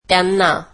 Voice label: marathi them